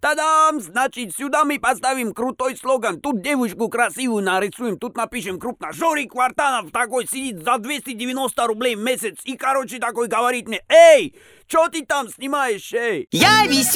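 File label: Parody Russian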